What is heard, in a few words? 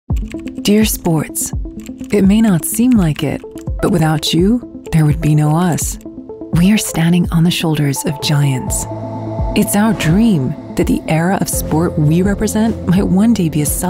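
British English, female, corporate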